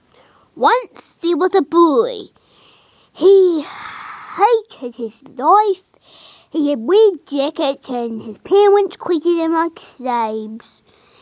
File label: poem of sad child